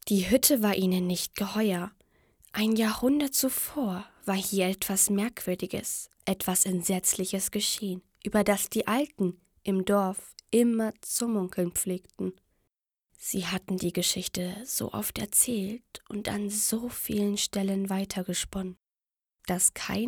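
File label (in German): Mädchen; Die Hütte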